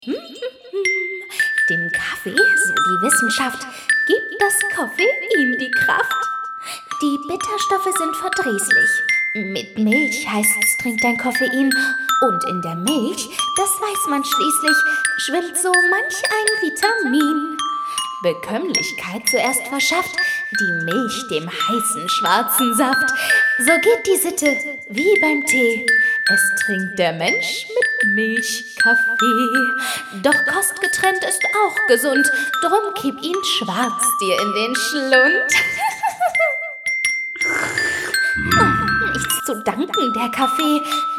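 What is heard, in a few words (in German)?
Hörspiel; kaffee fee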